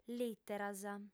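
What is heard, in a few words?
"lìteras", female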